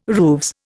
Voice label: "rooves", Indian

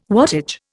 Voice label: "wattage", Indian

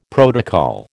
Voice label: "protocol", American

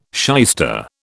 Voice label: "shyster", British

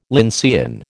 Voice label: "lyncean", American

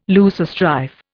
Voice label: "loosestrife", Indian

